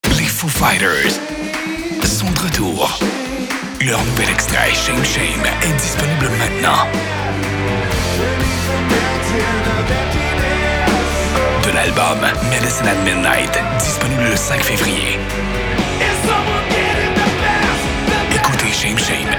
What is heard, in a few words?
french canadian commercial